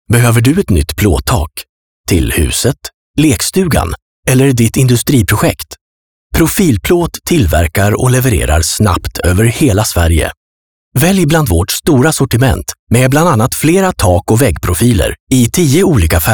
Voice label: Commercial Casual Mode